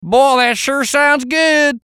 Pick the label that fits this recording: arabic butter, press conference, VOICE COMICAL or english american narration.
VOICE COMICAL